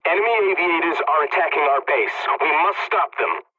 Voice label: pilot base under attack, english; War Thunder